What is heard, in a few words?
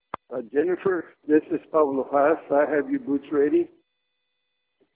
voicemail